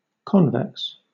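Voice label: "convex", British English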